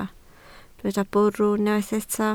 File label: "bwrw", Welsh